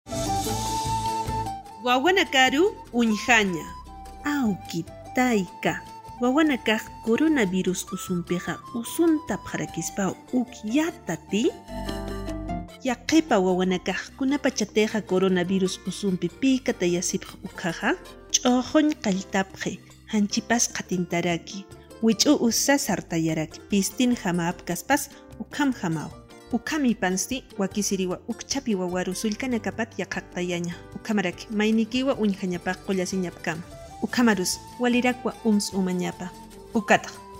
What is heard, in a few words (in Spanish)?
aimara, spot de radio; cuidado de ninas y ninos; sospecha o confirmacion de contagio